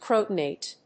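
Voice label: "Crotonate", English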